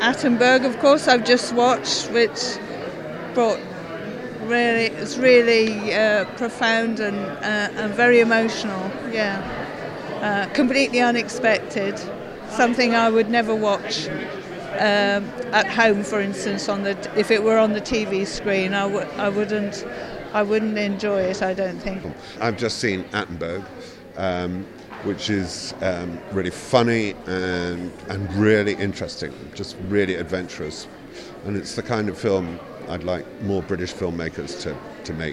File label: vox pop